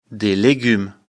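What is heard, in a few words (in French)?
"legumes", French; afrikalan; lecture fr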